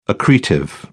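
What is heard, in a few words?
"accretive", British English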